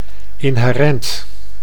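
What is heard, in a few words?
"inherent", Dutch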